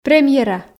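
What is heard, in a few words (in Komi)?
"премьера" Komi, female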